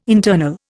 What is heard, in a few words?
"internal", Indian